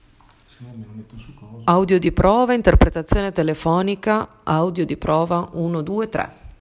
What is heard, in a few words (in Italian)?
interpretazione telefonica